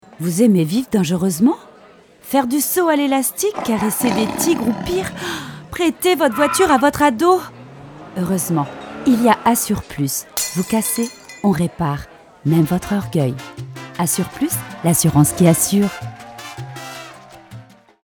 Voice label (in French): voix off; Assur Plus